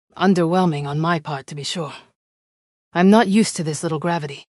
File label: upset; fertig classic games